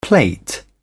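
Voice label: "plate", British English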